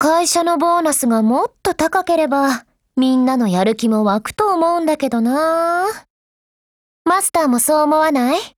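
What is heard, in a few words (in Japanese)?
dialogue, Japanese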